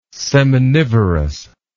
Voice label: "seminivorous", English